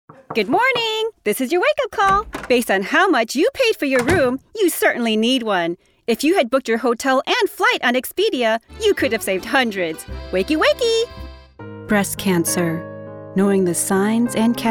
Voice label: Commercial VO